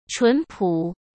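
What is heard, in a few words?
"纯朴", Mandarin Chinese; chinese flashcards; hsk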